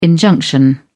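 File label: "injunction", British English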